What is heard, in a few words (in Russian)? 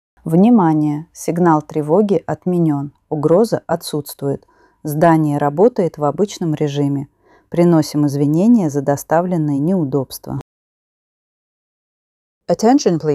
opoveshchenie otboj trevogi obychnyj rezhim ru en